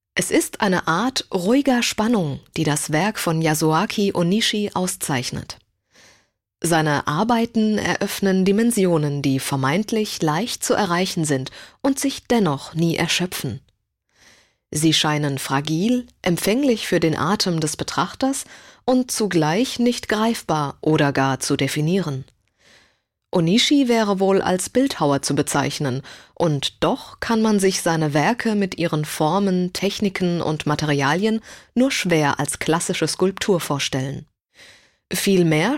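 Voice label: German audioguide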